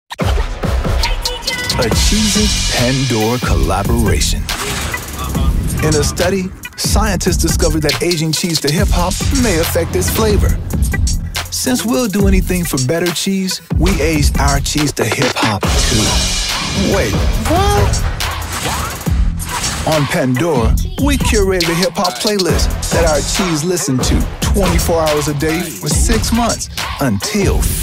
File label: english american; dublador profissional afro-americano que fala inglês